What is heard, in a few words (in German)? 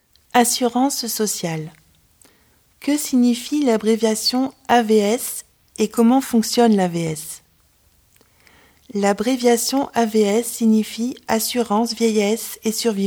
franzoesisch